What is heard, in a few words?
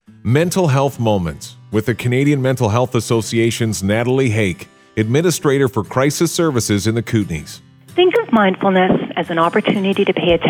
Mindfuleness Breathing